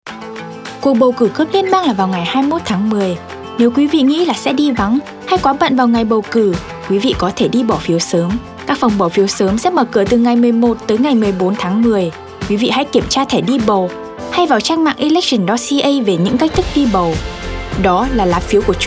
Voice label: Vietnamese, radio advert; Early Voting Option